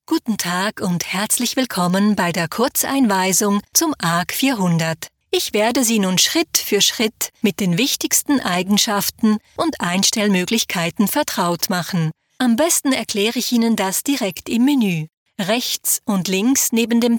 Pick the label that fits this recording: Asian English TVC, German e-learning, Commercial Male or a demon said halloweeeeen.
German e-learning